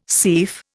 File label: "seif", Indian